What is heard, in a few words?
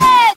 "ay", voice